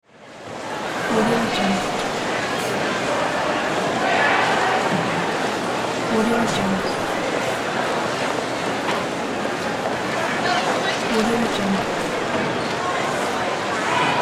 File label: people talking